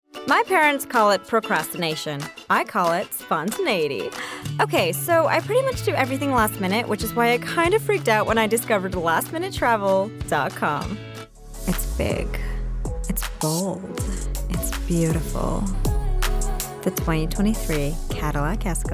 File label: Commercial Sample